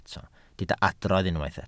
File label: "adrodd", Welsh